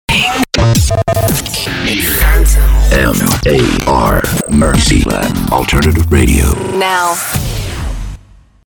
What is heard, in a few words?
SWEEPER; MAR MERSEYLAND; Dry Voiceovers